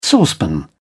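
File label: "saucepan", English, British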